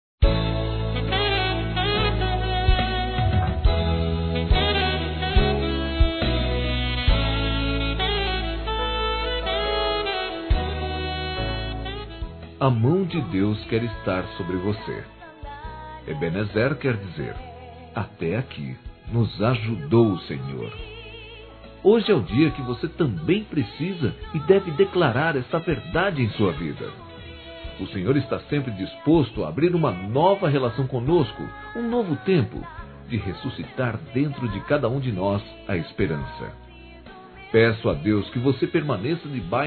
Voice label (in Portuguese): AO DOENTE M, Telemensagens, Gospel, masc